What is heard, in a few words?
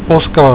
"poskaart", Afrikaans